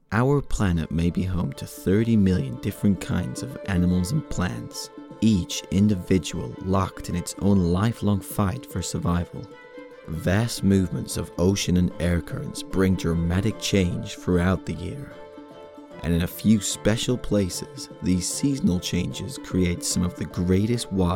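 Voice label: Documentary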